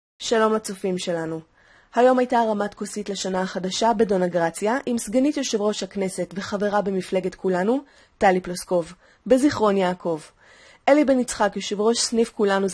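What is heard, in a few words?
Hebrew, female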